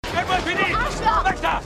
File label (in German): Angerempelte Frau